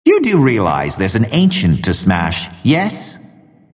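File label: announcer idle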